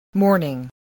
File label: "morning", voice, spelling